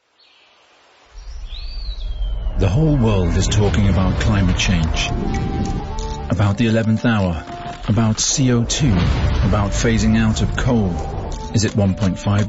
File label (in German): Klima Image engl; LOFT VOICES